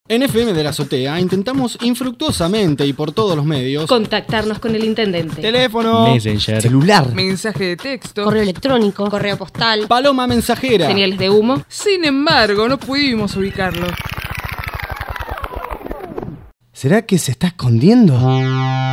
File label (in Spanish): Spot; Sin Techo fm De La Azotea